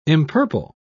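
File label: "empurple", British English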